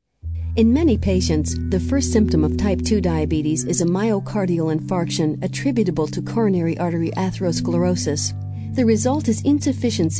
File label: narration; Cliff House Audio Voices